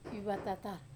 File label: "vevatatar", Wamesa